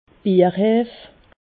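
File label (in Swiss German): "bierheef", Alsatian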